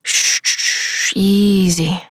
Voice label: Horse Calm Adult; Duviri